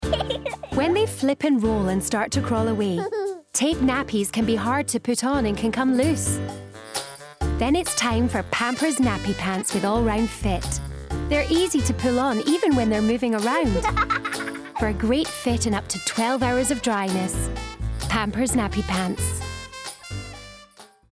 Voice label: Scottish